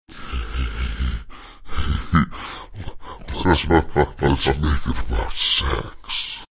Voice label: saying he he he hey hey lets make it about sex slowed